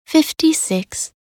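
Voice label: voc en min 56, English; minute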